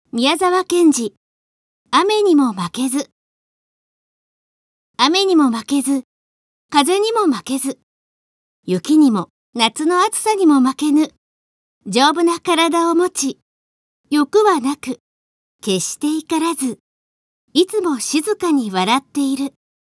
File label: girl; 雨にも負けず